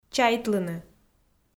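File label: "чайтлыны", Komi, female; kpv audio dict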